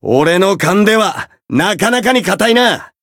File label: encount fear, jp